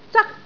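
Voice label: "zak1", Cantonese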